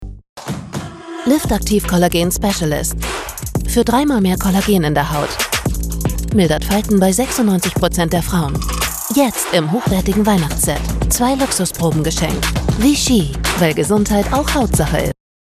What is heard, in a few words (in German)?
Werbung; Vichy